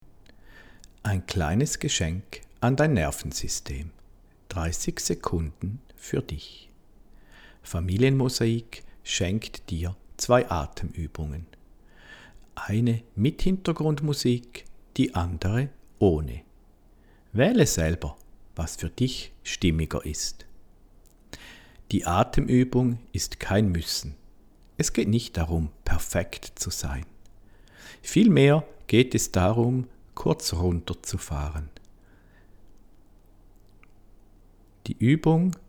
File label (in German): Anleitung Atemuebung